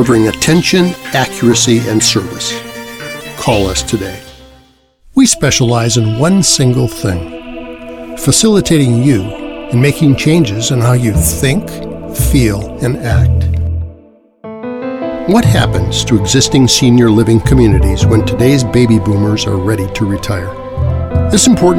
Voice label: Narration